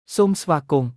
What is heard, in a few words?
welcome, khmer; សូមស្វាគមន៍; som svakôm